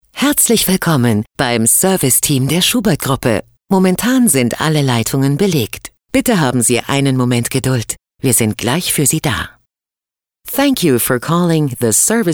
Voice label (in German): Telefonansagen; Schubert Helme Telefonansagen (Auszug)